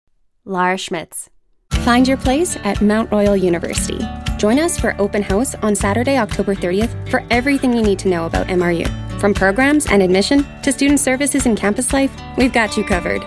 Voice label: Commercial